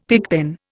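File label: "pigpen", Indian English; Dictionary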